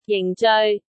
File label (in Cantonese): "jing4-zeoi6", cantonese